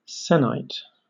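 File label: "sennight", British English; pronunciations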